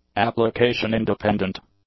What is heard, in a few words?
"application-independent", English; adjectives